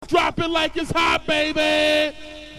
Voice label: "Drop it", DJ tools

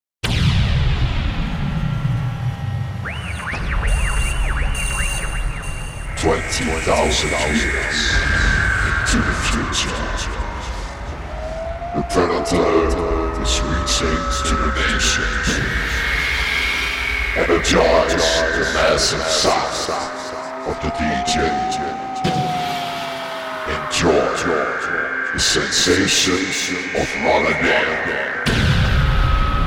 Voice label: Voice Cool Intro

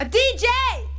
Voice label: A DeeJay (Female Voice)

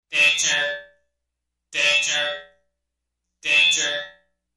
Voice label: Space Voice 'Danger Danger'

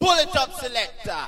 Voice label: Pull it up Selector, DJ tools

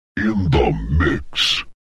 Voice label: VOCAL DROPS

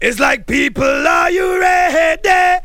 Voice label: People are you ready, Ragga Voice

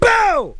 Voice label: "Booo" (ragga Voice)

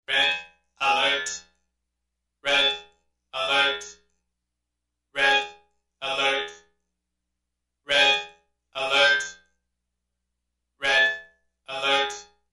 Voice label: Space Voice 'Red Alert'

